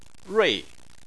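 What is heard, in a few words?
"rui", Mandarin Chinese, fourth tone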